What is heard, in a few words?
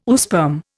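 "oosperm", Indian English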